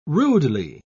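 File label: "rudely", English, British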